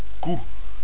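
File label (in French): "cou", French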